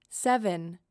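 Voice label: Seven, female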